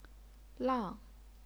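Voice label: "lang", Chinese, fourth tone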